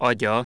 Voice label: voiced palatal stop medial, hungarian

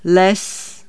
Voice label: forest, Czech

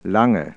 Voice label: long, German

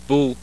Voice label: back rounded, norwegian

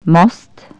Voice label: bridge, Bulgarian Consonants

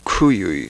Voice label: velar aspirated, quechua

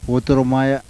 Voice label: laminal dental, yanyuwa

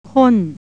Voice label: thick soup, Thai vowels

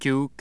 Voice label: voiceless palatal stop initial, hungarian

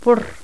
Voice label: postalveolar rhotic, toda

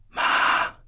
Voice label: tone whisper, mandarin tones

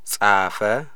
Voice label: he wrote, amharic

